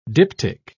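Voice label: "diptych", British English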